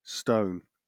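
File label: "stone", British English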